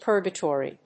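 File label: "purgatory", English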